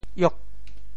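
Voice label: "iok8", Teochew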